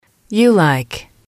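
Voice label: "you like", English, female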